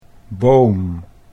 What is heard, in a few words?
"Boom", Dutch